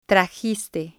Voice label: "trajiste", Spanish